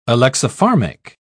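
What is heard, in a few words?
"alexipharmic", British English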